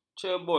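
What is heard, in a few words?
"cebul", Malay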